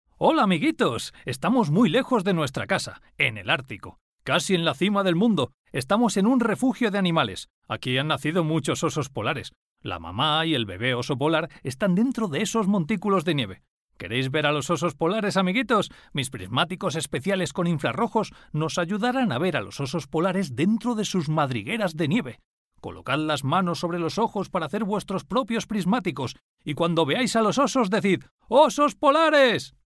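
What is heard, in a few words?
Spanish Spain male upbeat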